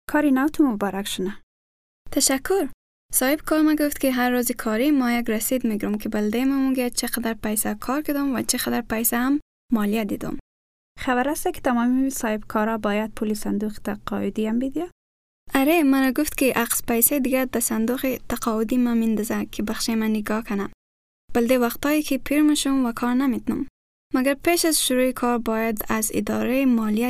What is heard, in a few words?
working in australia, hazaragi